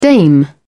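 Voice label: "dame", British English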